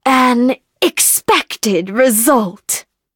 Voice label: victory, en